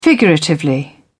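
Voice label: "figuratively", English, British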